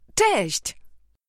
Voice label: bonjour, voix